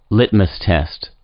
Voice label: "litmus test", English

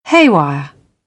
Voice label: "haywire", British; AmericanBritish

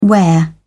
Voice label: "wear", British; AmericanBritish

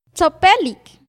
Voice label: "tzopelik", Náhuatl; dulce

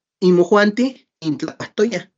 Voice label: inmojuantij intlakuajtoyaj, Náhuatl; ustedes habían comido; you had eaten

